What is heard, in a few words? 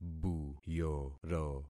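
"buyoro", concatenated syllables; Affixing experiment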